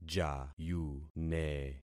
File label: "jayune", concat syllables; Affixing experiment